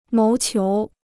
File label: "谋求", Chinese